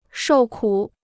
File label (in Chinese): "受苦", Chinese